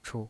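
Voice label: "chu", Mandarin Chinese pinyin voice, neutral tone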